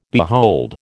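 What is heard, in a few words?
"behold", American English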